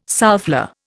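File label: "soufflé", Indian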